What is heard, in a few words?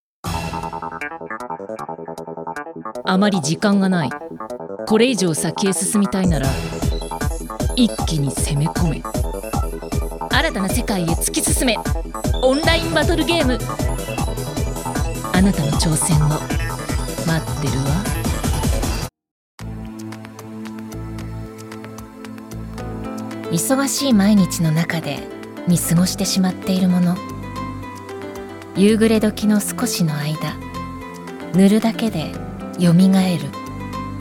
Voice cool; クール